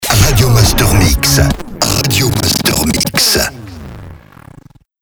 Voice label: Sweeper Radio Master MIX - MEDIUM; Habillage